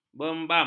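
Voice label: "bembam", Malay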